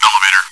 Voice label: chitchat, bot, voice commands; svencoop

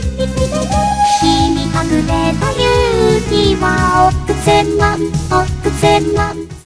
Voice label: chitchat, voice commands; svencoop; Miku

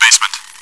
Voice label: chitchat; svencoop

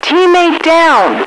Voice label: teamdown, radio, female

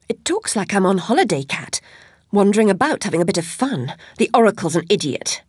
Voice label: chitchat; svencoop; Alice

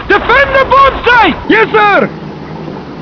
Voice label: russian speak

